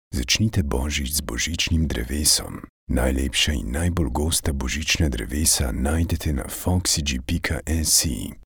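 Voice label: Slovenian, male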